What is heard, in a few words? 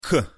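"к", Russian pronunciation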